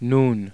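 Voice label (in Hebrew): "nun", Hebrew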